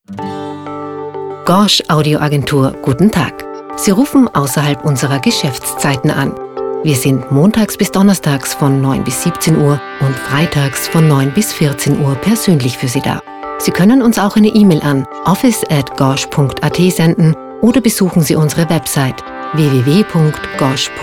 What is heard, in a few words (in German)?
Nacht DE